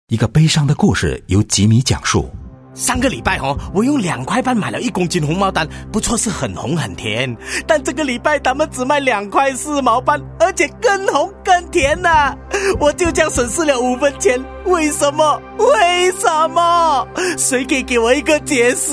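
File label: Rambutan Mandarin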